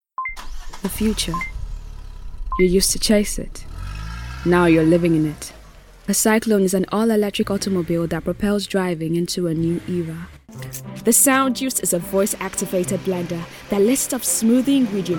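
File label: English African female Narrative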